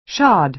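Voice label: "shod", American English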